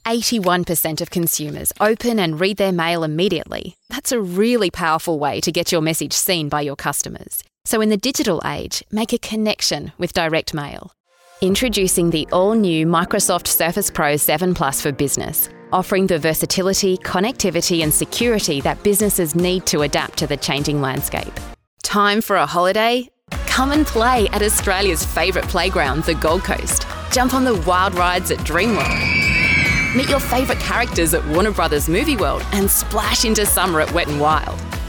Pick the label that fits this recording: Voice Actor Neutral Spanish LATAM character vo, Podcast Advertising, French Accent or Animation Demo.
Podcast Advertising